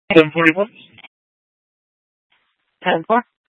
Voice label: Sheriffs Office, scanner